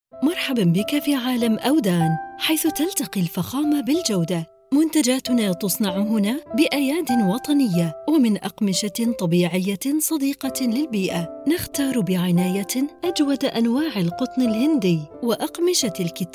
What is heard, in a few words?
voiceover